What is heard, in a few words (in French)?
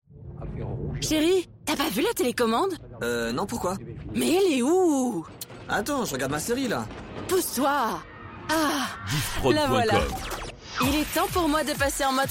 Radio Auto Promo Stand By; Dynamyk